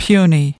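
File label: "puny", US English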